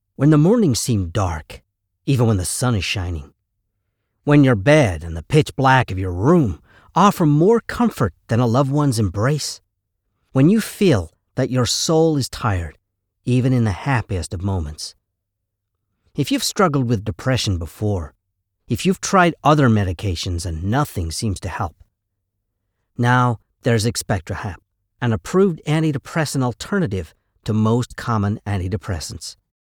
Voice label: gen am health

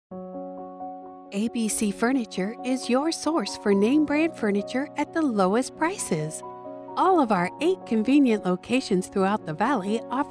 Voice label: with Music; ABC Furniture

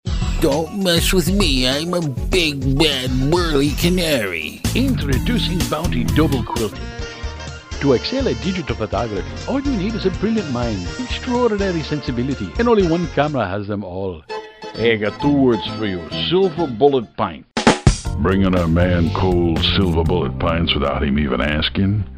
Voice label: Accents Characters

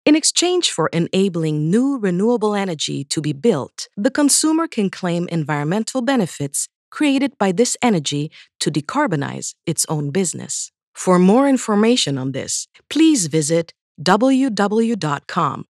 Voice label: Informative tec US

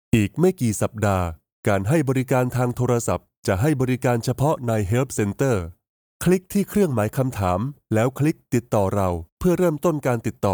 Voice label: IVR Male Clear; SAP Support

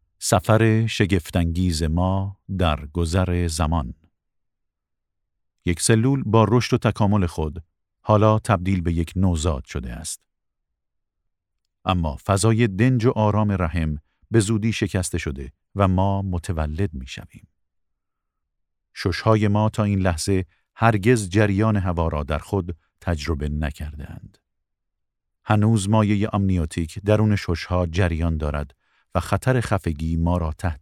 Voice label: Medical Voice

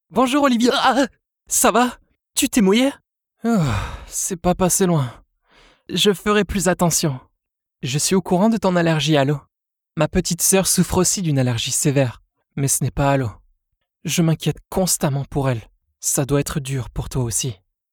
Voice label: Character FR

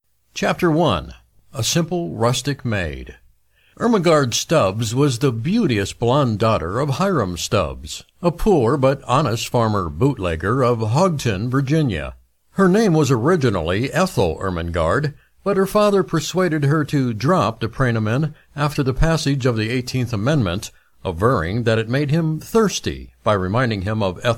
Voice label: Audio Book

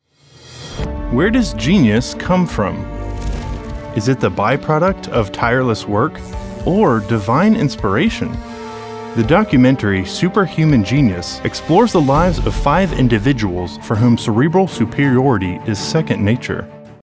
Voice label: Mysterious

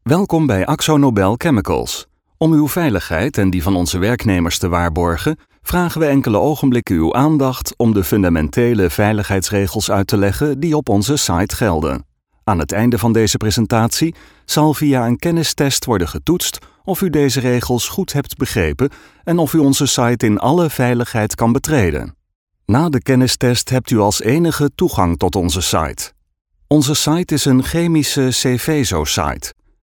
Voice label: corporate safety

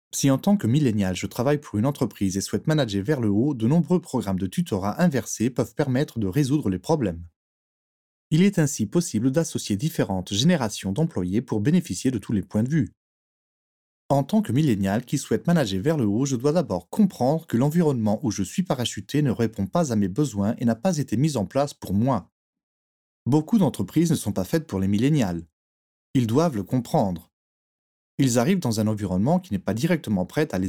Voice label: French E-Learning VO Sample

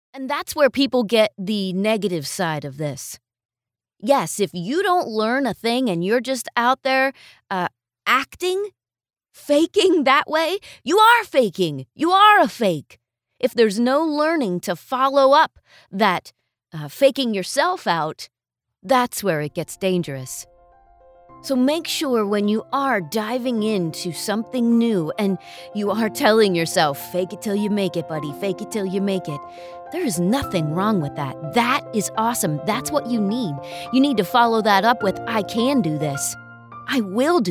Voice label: Podcast Narration

